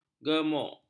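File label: "gemuk", Malay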